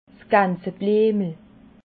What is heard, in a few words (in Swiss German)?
"gansebliemle", alsace